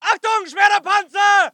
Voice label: voice ger infantry

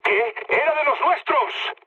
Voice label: player killed ally, mood low, speech; War Thunder

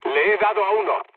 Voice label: player killed enemy, mood low, speech

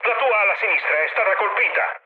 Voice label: left wing mood med, speech it; War Thunder

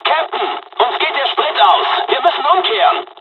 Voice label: dialog, dialogs german; War Thunder; midway

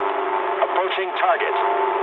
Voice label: eng near waypoint mood low, speech eng

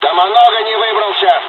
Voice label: dialog, dialogs russian; War Thunder

